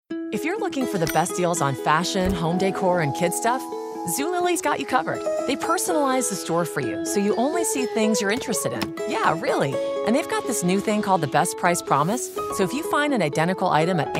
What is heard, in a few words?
Voice Over Commercial; Zulily